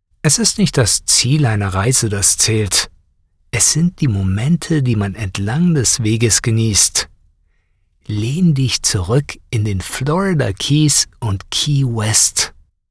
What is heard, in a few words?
TV Commercial Warm and Friendly